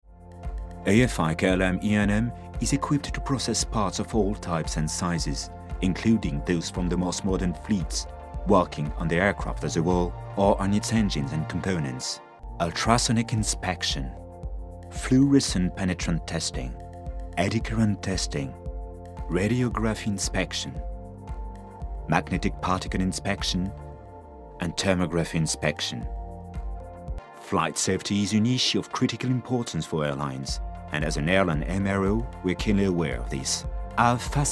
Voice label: anglais; air france

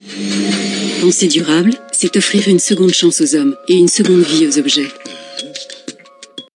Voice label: Publicite Signature; voix-off; Penser Durable

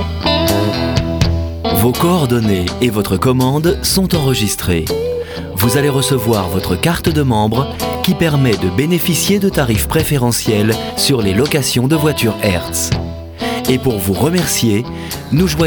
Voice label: SERVEUR VOCAL; HERTZ

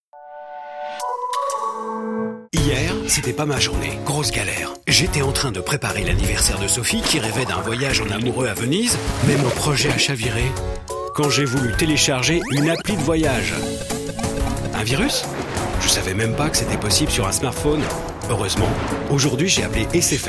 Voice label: Voix Off Sécurité Ton naturel; SFR

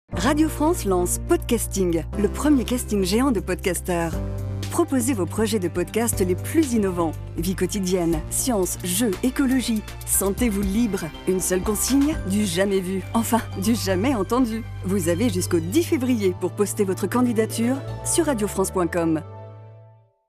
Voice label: BA PODCASTING RADIO; RADIO FRANCE

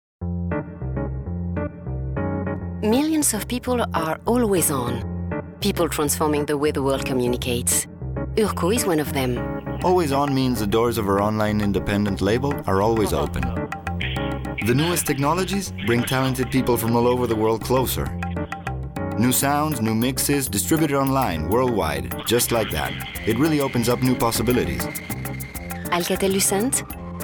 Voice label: anglais

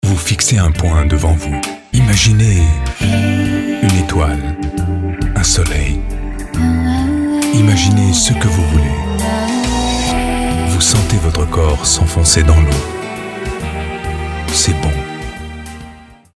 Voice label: VF douceur voix off voice over; GDF

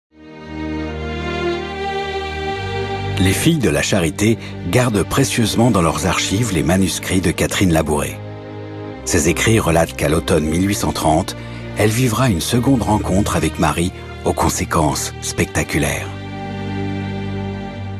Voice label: Voix narrative; Sur les pas de Marie

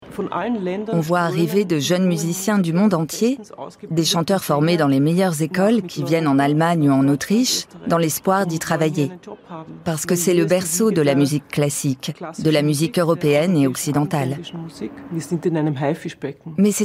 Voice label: doc voice over, chaleureuse elegante; LA CULTURE COUTE QUE COUTE

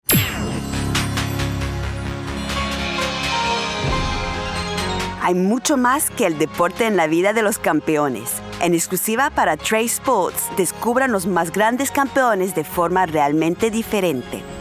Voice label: Voix Espagnole; Trace Sports

